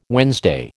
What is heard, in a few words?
"Wednesday", American